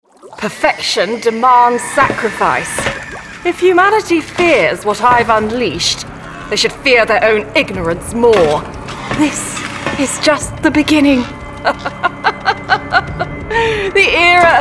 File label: Gaming Showreel